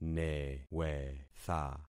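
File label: "newetha", concatenated syllables; Affixing experiment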